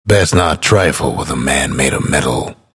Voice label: announcer pick clockwerk follow; Bastion

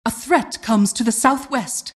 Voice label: announcer generic alert

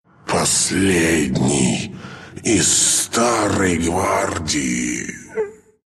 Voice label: death, ru

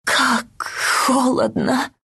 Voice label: death, ru; dota2

